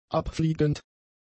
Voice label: "abfliegend", German; adjectives

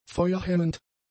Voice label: "feuerhemmend", German; adjectives

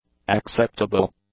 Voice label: "acceptable", english; adjectives

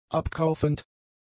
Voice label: "abkaufend", German; adjectives

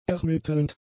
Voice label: "ermittelnd", German; adjectives

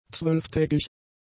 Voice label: "zwölftägig", German; adjectives

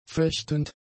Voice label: "fechtend", German; adjectives

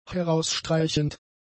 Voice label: "herausstreichend", German; adjectives

